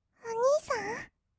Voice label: お兄さん, kawaii voice; ASMR; 二人称

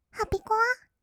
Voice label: ハピコア, kawaii voice, 音楽ジャンル; ASMR

